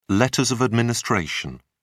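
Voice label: "letters of administration", British English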